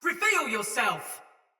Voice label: Sight Lost Shout Soldier Mace Agent Female; Battle; Sinecure Melee